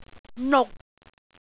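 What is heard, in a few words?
"nuk6", Cantonese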